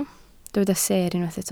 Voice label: "seer", Welsh